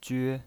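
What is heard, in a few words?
"jue", first tone, pinyin voice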